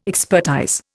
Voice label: "expertise", Indian